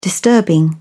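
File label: "disturbing", British English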